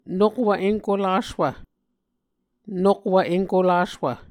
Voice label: "nokwv enkolaswv", Muscogee

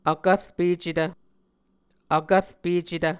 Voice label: "vkvrpecetv", Muscogee

